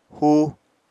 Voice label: "húu", Apatani